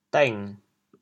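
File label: "dêng6", Chaozhou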